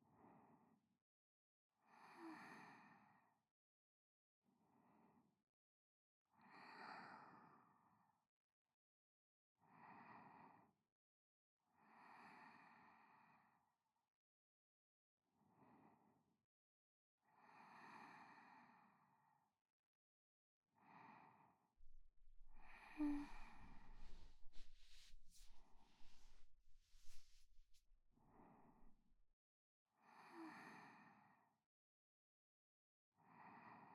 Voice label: 添い寝 右Ver, ASMR

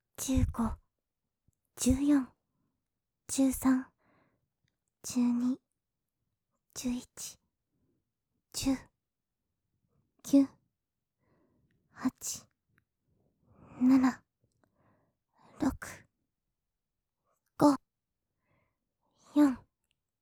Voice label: カウントダウンボイス, ステレオ; カウント有り

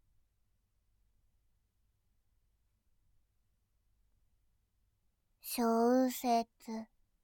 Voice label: 小説, ASMR; モノラル

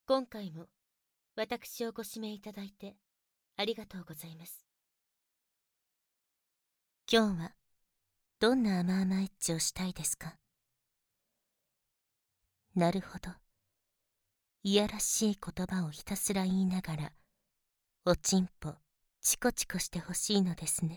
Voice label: 淫語連呼オナサポ, ASMR; 先生の淫語連呼オナサポです; SE無し

